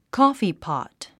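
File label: "coffeepot", English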